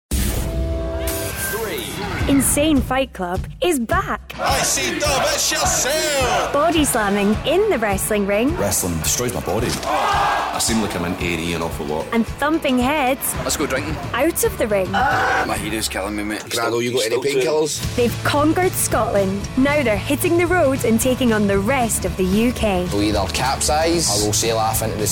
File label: Promo; Insane Fight Club; Vocal Point